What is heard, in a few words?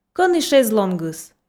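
Komi sentences, female; кӧні шезлонгыс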